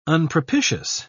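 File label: "unpropitious", British English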